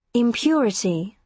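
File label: "impurity", British English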